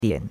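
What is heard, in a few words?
"dian3", Chinese; Cours de Chinois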